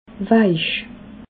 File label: "weich", alsace